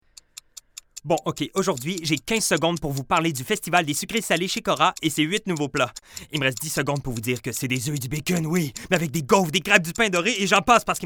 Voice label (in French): Publicite fictive; Démo audio; Cora